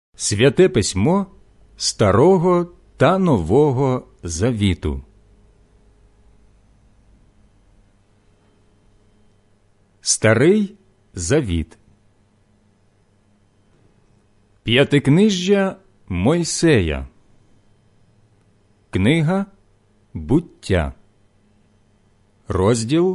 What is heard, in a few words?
ukrainian audio bible genesis